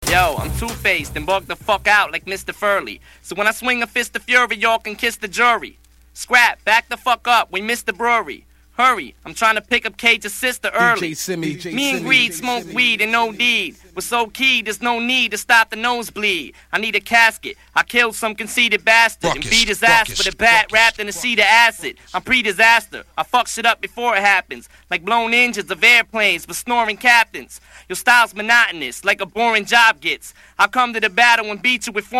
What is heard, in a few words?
acapella freestyle